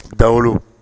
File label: "dawolo", Bambara